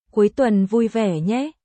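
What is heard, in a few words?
Vietnamese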